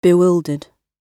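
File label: "bewildered", British English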